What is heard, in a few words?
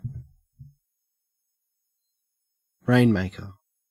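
"rainmaker", Australian English; pronunciations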